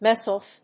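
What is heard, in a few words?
"meself", English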